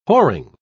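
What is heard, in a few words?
"whoring", British English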